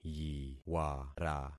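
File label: "yiwara", concatenated syllables; Affixing experiment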